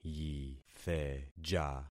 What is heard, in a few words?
"yitheja", concat syllables; Affixing experiment